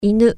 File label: dog, japanese